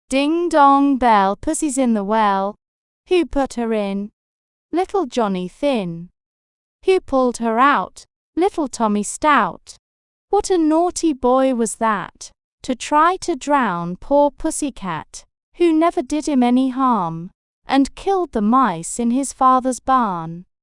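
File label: female brit; Ding Dong Bell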